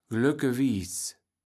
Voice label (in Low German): "glöckgewiess", kaevels platt